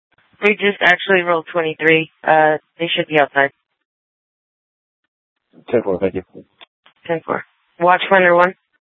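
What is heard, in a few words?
Sheriff, Parks, Sheriff radio scanner; Maricopa County (P25) - Thompson Peak; Shrf Mnt (Parks)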